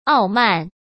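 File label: "傲慢", Mandarin Chinese; hsk; chinese-flashcards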